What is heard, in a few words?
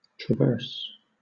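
"traverse", British English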